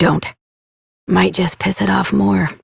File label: Adult Op, en; New War; Ascend Tower